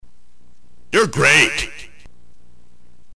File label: narrator